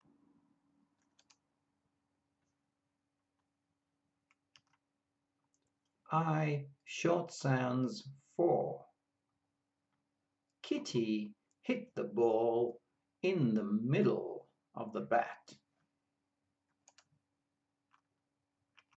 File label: I short sounds, pronunciation of australian english